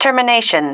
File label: "termination", female